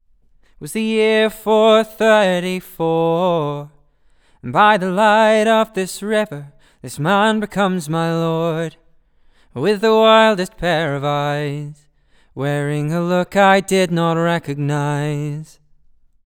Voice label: Vox MKH40; audient; Vox Normalised